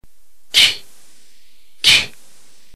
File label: chi, ntgreek alphabet phoneme